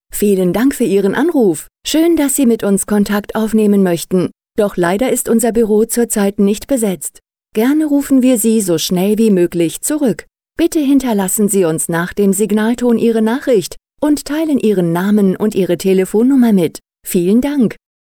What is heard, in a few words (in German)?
ansage (w)